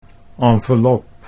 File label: "envelop", Dutch